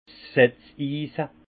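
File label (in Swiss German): "setzise", Haut Rhin; vin; pals plantoire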